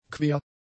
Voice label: "quer", German; adjectives